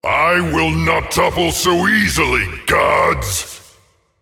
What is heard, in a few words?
kill streak, voicepack; Smite; atlas